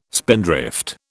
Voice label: "spindrift", British